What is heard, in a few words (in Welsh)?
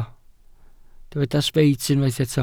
"sbeit", Welsh